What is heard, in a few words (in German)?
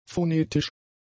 "phonetisch", German; adjectives